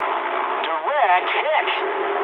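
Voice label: English bomb success mood med; War Thunder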